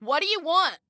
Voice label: Command Assignment, Female

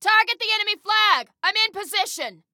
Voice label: Target Flag, Female

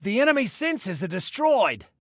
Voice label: Enemy Sensors, Male

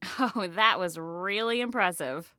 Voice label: Taunt Sarcasm, Female; Female 02

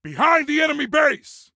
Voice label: Warn Enemy Behind Enemy Base, Male; T2 Male 05

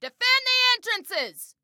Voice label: Defend Entrances, Female

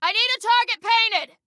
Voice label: Target Need, Female

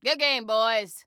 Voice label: Compliment Good Game, Female

